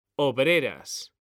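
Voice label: "obreras", Spanish; mekanta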